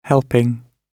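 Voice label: "helping", British English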